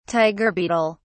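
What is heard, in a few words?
"tiger beetle", British English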